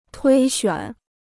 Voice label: "推选", Chinese

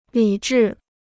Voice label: "理智", Chinese

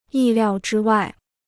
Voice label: "意料之外", Chinese

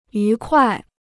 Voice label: "愉快", Chinese

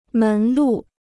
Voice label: "门路", Chinese

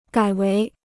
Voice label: "改为", Chinese

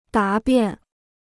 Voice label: "答辩", Chinese

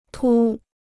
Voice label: "凸", Chinese

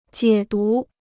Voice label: "解读", Chinese

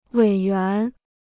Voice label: "委员", Chinese

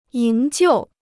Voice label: "营救", Chinese; yíng jiù; to rescue

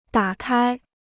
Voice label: "打开", Chinese

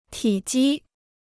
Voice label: "体积", Chinese

